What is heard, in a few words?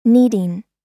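"needing", American English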